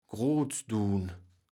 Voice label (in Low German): "groetsduun", kaevels platt